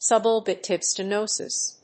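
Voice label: "subglottic stenosis", English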